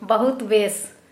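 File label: "thank you", Kera Mundari, female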